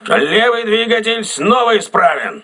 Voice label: voice message left engine repaired, crew ships russian